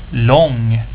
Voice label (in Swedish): "lång", Swedish